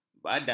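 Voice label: "badai", Malay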